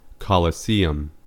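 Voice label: "colosseum", US English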